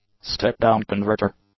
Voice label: "step down converter", english; nouns

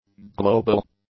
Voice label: "global", english; adjectives

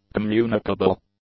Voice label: "communicable", English; adjectives

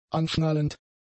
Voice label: "anschnallend", German; adjectives

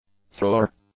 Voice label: "thrower", english; nouns

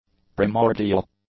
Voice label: "primordial", english; adjectives

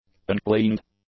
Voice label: "uncleaned", English; adjectives

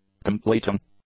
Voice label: "completing", English; adjectives

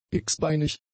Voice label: "x-beinig", German; adjectives

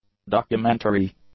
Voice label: "documentary", English; nouns

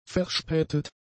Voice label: "verspätet", German; adjectives

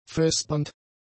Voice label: "vespernd", German; adjectives

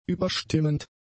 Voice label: "überstimmend", German; adjectives

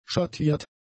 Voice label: "schattiert", german; adjectives

